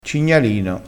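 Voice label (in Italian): "cignalino", dialettale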